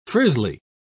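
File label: "frizzly", British English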